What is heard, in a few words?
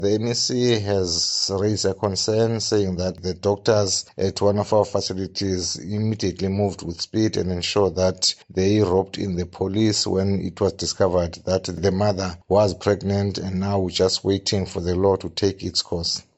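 English; Sex Education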